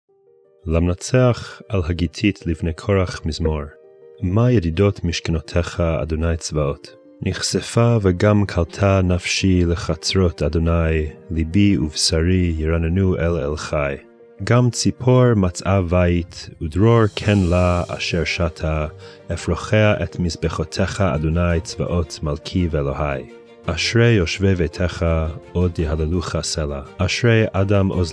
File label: poetry and prayer psalm hebrew; Meir Soloveichik